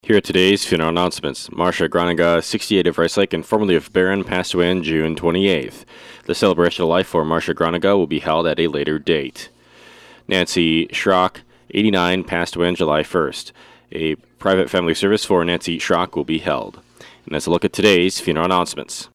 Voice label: Funeral Announcements